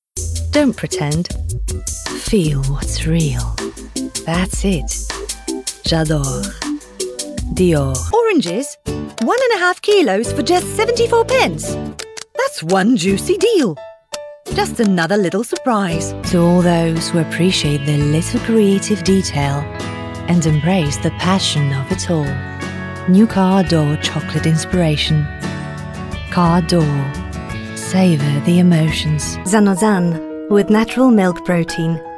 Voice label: English Commercials; LOFT VOICES

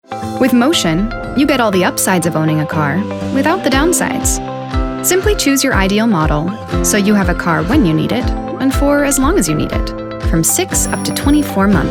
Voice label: Commercial

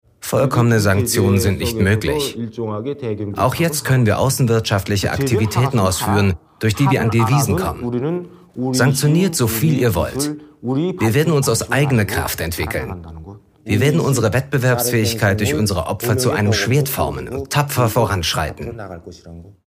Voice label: Voice Over; ZDF; Buero 39

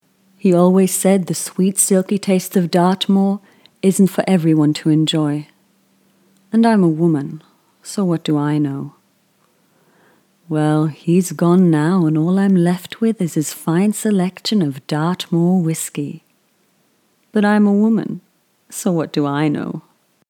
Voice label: Commercial UK; LOFT VOICES

